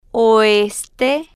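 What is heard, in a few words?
"oeste", Spanish, female, slow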